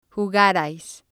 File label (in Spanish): "jugarais", spanish